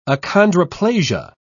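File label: "achondroplasia", British English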